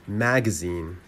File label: "magazine", American pronunciation